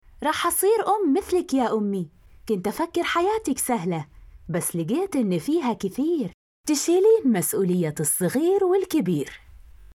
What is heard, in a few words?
saudi